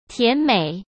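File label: "甜美", Mandarin Chinese; chinese-flashcards; hsk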